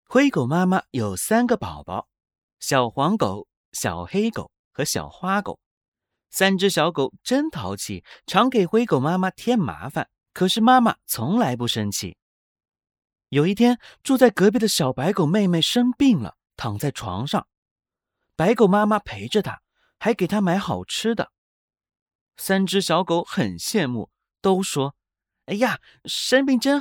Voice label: 卡通故事

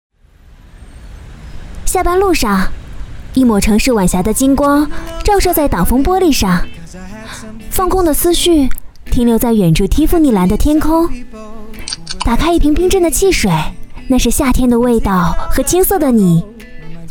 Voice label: 夏日宣传; 下班万岁

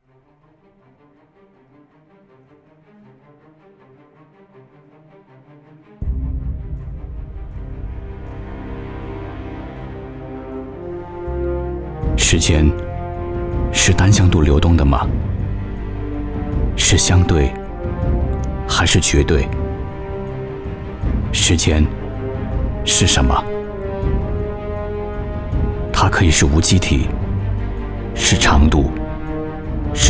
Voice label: 宣传类诉说

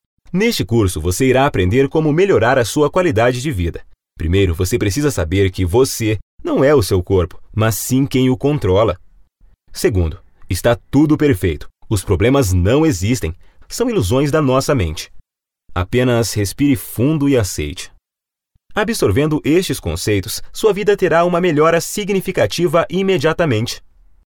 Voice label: 外籍葡萄牙语男磁性浑厚

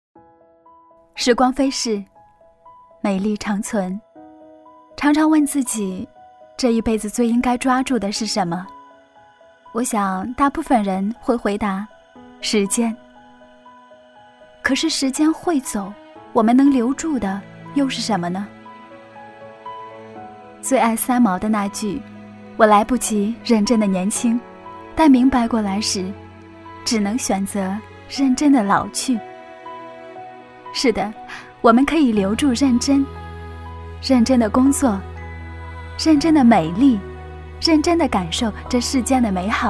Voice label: 自述; 时间

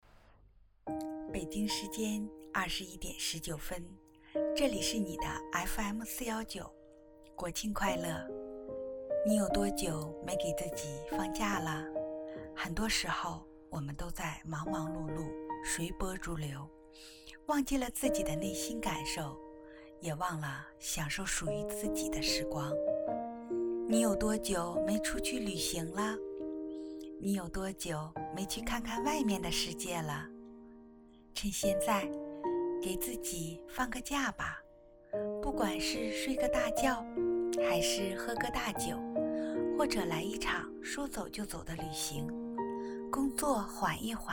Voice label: 晚安电台

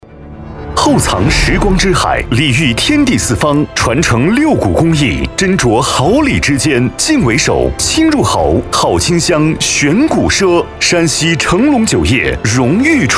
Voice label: 电台促销节目赞助播出

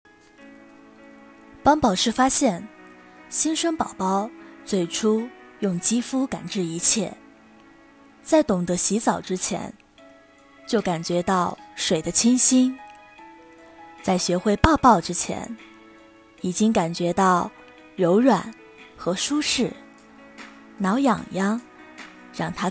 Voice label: 广告

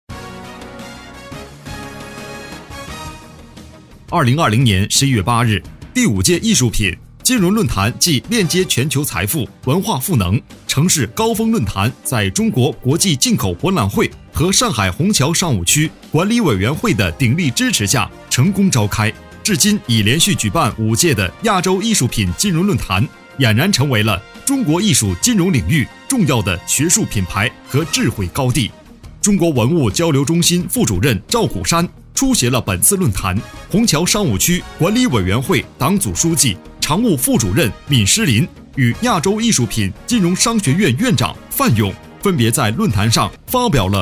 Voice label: 新闻

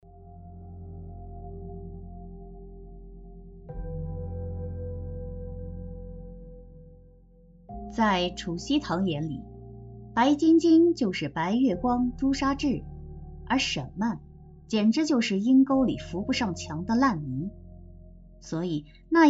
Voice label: 旁白 女声

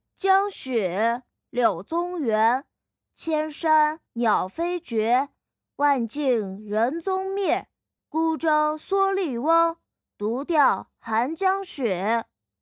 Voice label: 正太音

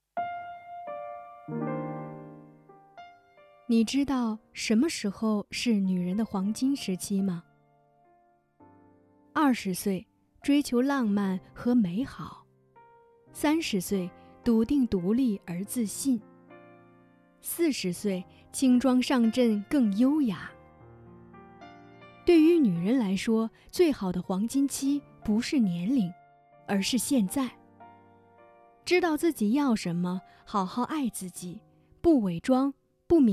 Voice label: 情感电台

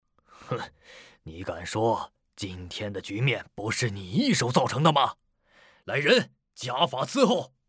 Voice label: 中年

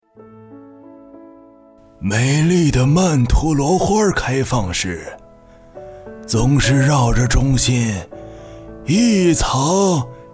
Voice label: 老人小孩

